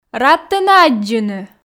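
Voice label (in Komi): рад тэнӧ аддзыны, Komi, female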